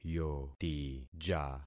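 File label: "yotija", concatenated syllables; Affixing experiment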